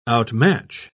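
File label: "outmatch", British English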